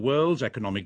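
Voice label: "world's economic", Standard Southern British English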